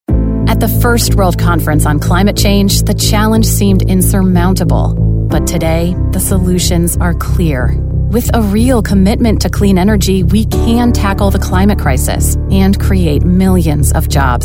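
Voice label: Political, Professional Female Voice Talent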